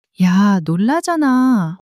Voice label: sulk, monologue

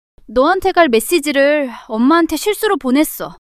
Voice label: fluster; monologue

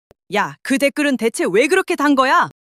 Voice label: anger; monologue

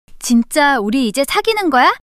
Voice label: surprise, monologue

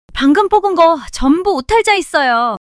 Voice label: surprise, monologue

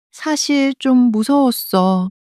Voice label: sadness, monologue

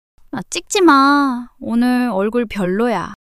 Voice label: shy; monologue

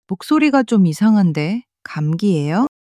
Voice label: sadness, monologue

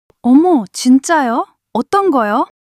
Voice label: surprise, monologue

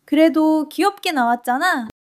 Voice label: joy; monologue

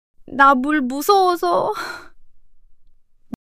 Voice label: fluster, monologue

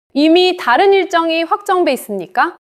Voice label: neutral, monologue

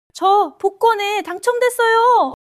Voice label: excitement

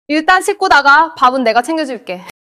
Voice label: neutral, monologue

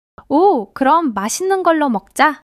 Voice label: joy; monologue

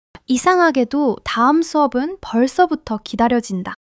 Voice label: excitement

